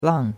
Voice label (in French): "lang4", chinois; Cours de Chinois